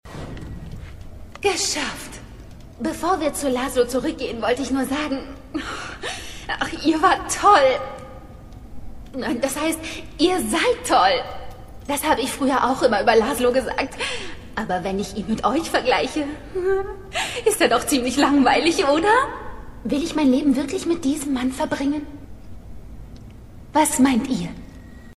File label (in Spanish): locutora alemana